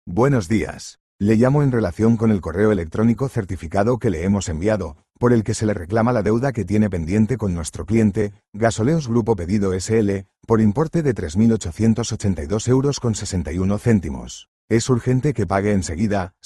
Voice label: audio call